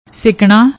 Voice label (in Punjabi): "sikna", Punjabi; ਸਿਕਣਾ